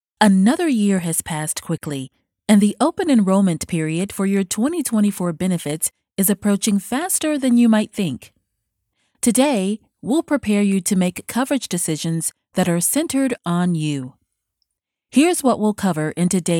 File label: e-learning